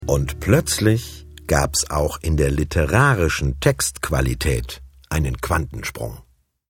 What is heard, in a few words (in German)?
moderation